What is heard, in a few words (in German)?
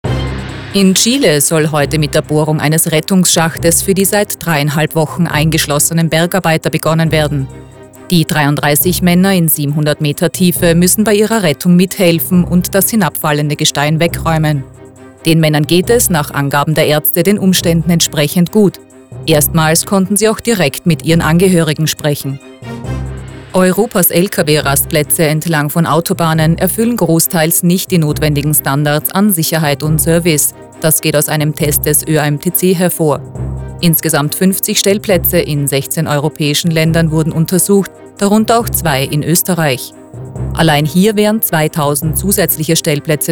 News